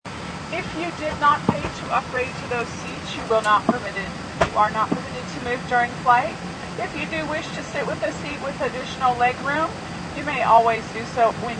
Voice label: Stewardess Seating Announcement; Airports